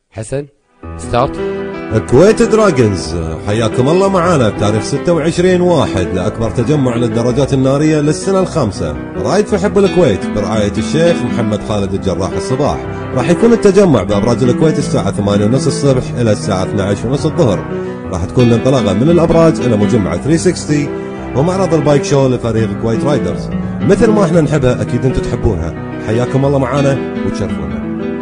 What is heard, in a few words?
kuwaiti voiceover